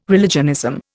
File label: "religionism", Indian